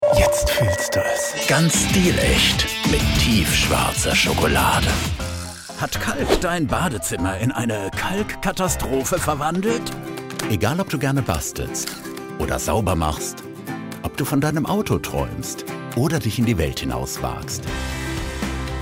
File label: german commercial; calm